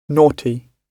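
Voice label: "naughty", British English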